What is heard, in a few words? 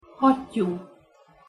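"hattyu", Hungarian pronunciation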